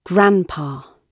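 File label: "grandpa", British English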